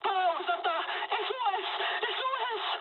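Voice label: Japanese damaged engine mood high; War Thunder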